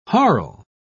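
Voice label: "harle", British English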